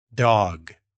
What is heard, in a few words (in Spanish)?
perro inglés; palabras ingles infantil